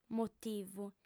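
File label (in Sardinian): "motìvu", female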